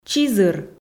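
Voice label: "чизыр", Komi, female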